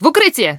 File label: grenade, human woman fight; Stalker Anomaly